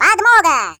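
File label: "Reinforcements", Russian; hedgewars; Default ru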